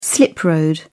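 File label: "slip road", British English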